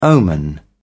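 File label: "omen", English, British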